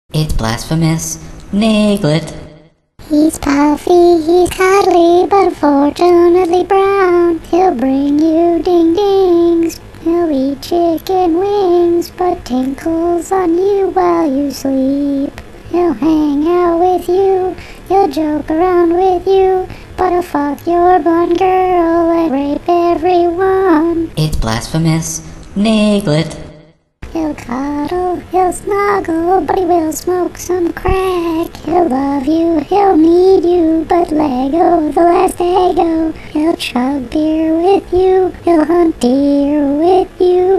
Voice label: Commercial; fake commercials